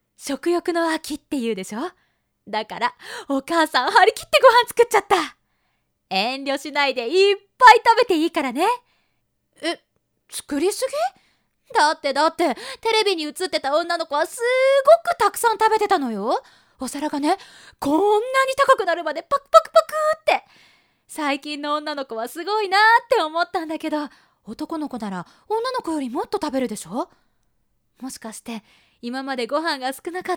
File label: woman